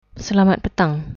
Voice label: good afternoon, malay

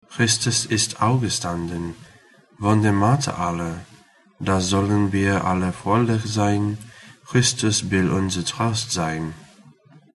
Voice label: cimbrian

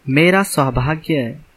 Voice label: youre welcome, hindi

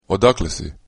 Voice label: where from Croatian; Odakle si?

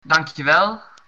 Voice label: thanks, dutch

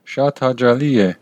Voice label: meet, armenian western

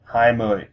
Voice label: twenty vietnamese

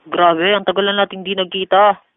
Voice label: long time no see tagalog

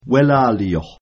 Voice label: thanks, Mi'kmaq

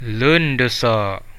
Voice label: cheers, central dusun

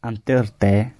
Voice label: good luck, gwentian

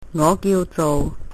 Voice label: my name is, Cantonese; 我叫做 ...; ngóh giujouh ...

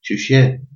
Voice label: hello, albanian gheg; Qysh je?

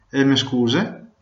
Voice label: sorry, Venetian

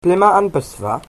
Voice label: toilet, Cornish

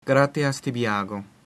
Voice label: thanks, latin

